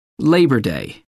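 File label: "labor day", British English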